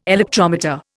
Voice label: "electrometer", Indian

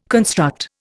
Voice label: "construct", Indian

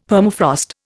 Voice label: "Permafrost", Indian; Dictionary

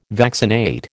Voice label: "vaccinate", American

